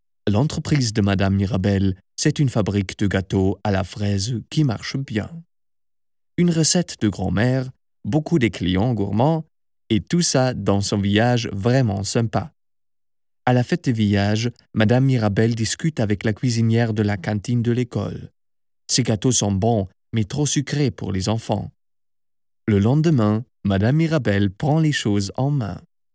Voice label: french commercial

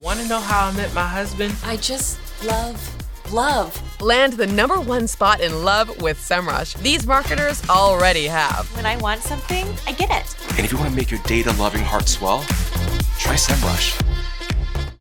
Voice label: english new zealand tv shows

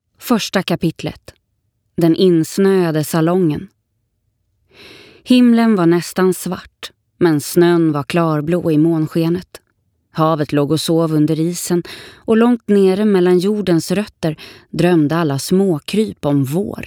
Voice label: swedish audiobooks

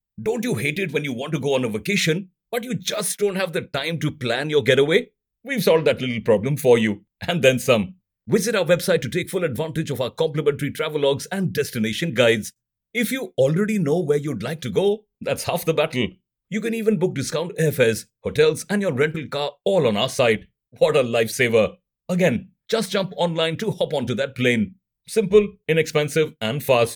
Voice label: english indian promos

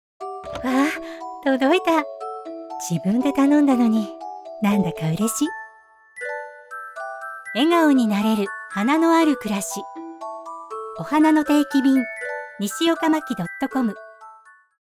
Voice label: Japanese commercial; professional Japanese voiceover artist

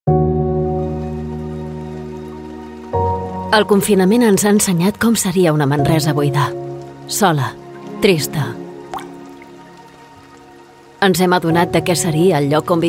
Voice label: catalan animation; professional Spanish and Catalan voice actor

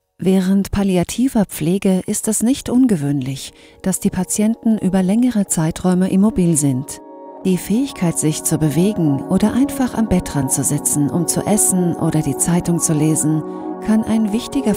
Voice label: german medical narration; Narración médica

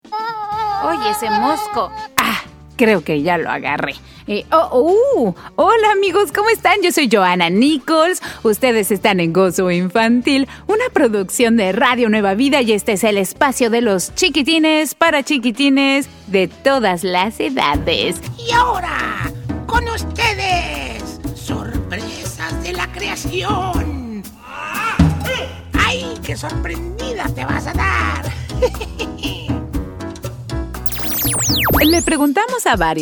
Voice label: spanish latin american podcasts

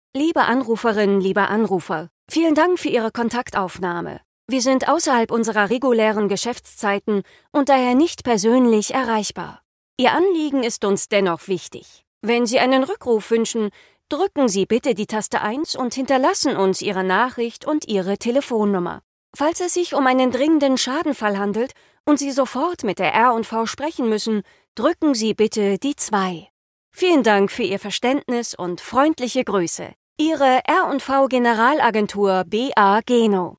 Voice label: german ivr

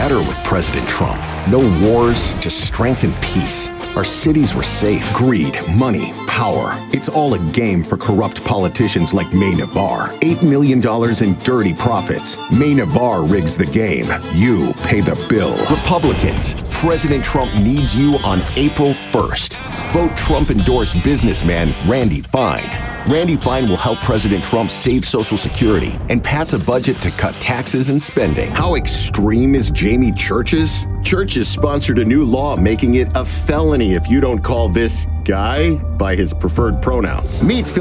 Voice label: english american political

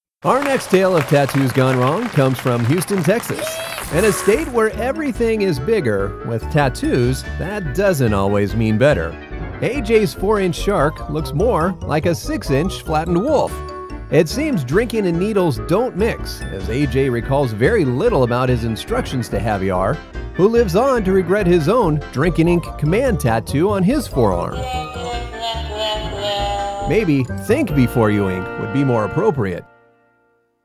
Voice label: English American TV shows

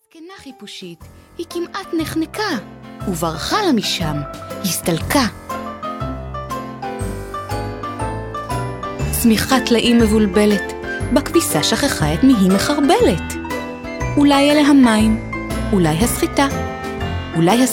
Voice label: hebrew audiobooks

